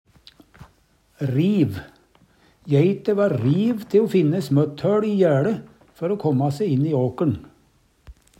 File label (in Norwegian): riv, Numedalsmål